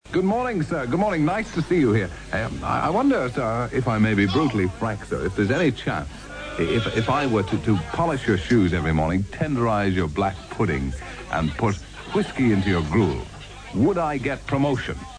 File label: promotion; wogan 70s